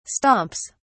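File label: "stomps", English, British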